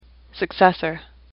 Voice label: "successor", English; AWL